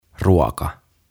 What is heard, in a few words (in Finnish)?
"Ruoka" "Food"